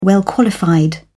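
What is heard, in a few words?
"well-qualified", British English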